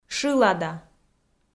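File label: "шылада", Komi, female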